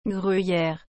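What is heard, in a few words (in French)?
"gruyère", French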